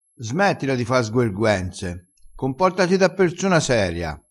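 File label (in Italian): "sguerguenza", vocabolario dialettale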